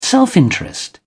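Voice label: "self-interest", British English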